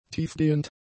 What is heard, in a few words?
"tiefgehend", German; adjectives